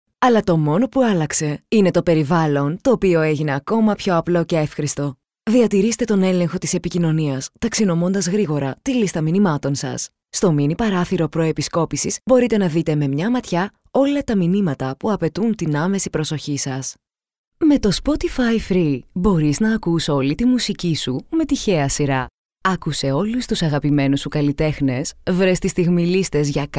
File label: female greek voice over narrative